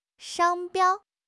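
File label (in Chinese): "商标", Chinese; HSK6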